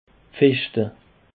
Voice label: "pfeschte", Alsace

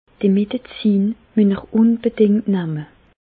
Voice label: "unbedingt", Bas Rhin; medecine; prenez bien ces médicaments

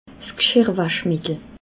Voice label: "gschirrwaschmittel", alsace

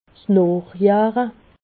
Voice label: "noochjahre", alsace

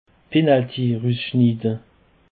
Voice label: "russchnide", Alsace

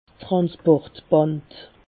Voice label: "transportband", alsace

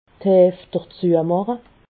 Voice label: "heefdezuemache", alsace

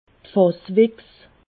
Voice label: "fasswichs", alsace

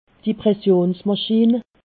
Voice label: "pressionsmaschin", alsace

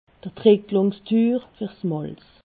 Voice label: "tricklungstur", alsace

